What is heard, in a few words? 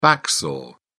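"back saw", British English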